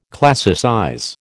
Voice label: "classicize", American